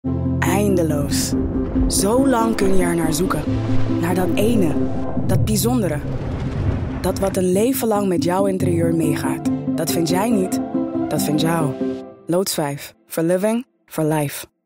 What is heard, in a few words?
VO NL; Loods 5